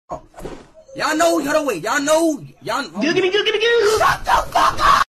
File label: stuttering